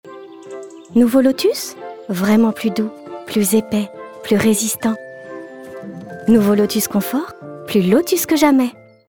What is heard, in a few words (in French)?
PUBLICITE voix espiegle; LOTUS CONFORT